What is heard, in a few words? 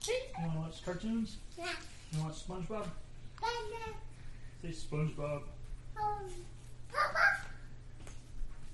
Kid Talking About Spongebob